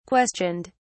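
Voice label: "questioned", British English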